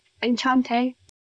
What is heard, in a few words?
Voice Acting es